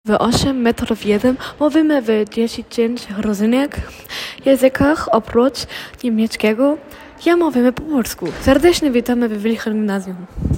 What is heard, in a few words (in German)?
polnisch